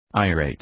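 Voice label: "irate", English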